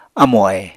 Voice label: "amo-ahe", apatani